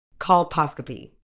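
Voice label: "colposcopy", British English; kɒlˈpɒs.kə.piː; kol-POSS-kuh-pee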